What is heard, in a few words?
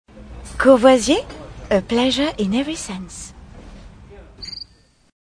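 Young, Commercials, British; Courvoisier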